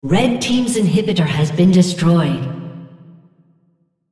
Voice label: On Dampener Die red, female